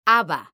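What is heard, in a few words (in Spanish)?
"haba", Spanish, female; mekanta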